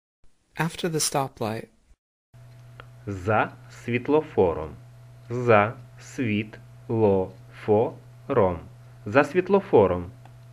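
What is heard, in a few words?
Ukrainian, After the Stoplight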